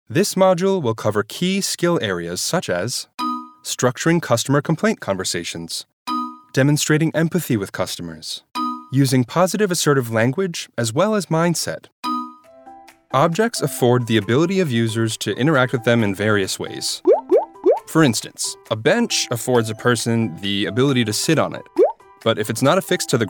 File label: eLearning